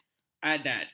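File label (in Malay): "adat", Malay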